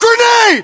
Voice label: inform incoming grenade, battlechatter, English, US